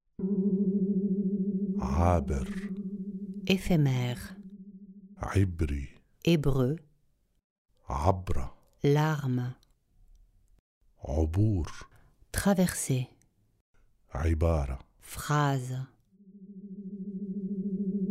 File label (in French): MOTS DE L'ÉPHÉMÈRE arabe et français